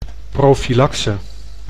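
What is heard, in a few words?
"profylaxe", Dutch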